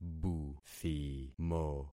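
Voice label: "buthimo", concatenated syllables; Affixing experiment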